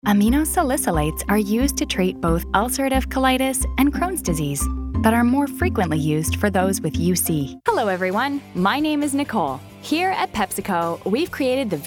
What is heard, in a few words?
Narration E Learning